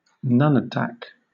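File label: "nunatak", British English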